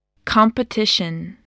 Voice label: "competition", english